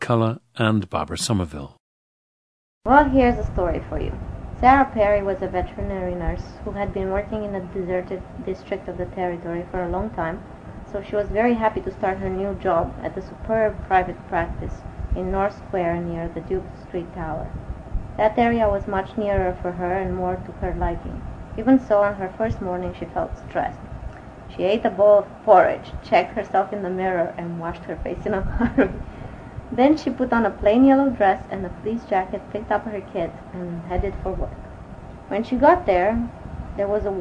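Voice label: romania, International Dialects of English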